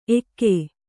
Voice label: "ಎಕ್ಕು", Kannada